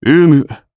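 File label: voice Aff2, voices heroes, Japanese; Shakmeh; kingsraid audio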